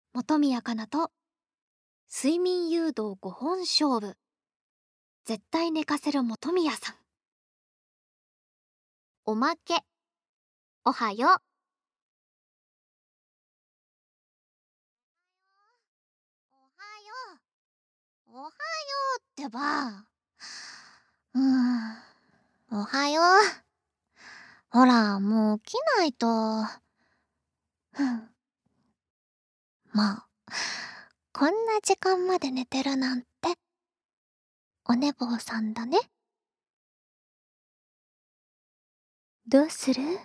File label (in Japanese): 『おはよう』, ASMR